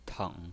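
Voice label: "teng", pinyin voice, third tone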